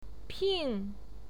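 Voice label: "ping", Mandarin Chinese, fourth tone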